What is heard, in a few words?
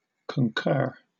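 "concur", British English; pronunciations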